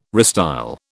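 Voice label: "restyle", British English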